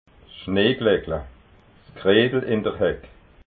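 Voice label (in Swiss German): "schneeglackla", Alsatian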